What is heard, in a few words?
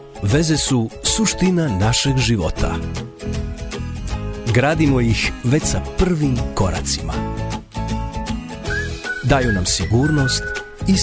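Montenegrin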